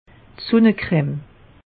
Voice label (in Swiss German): "sunnekrem", alsace; meteo